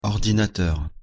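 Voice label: pronunciation "ordinateur", French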